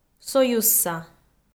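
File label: "союзса", Komi, female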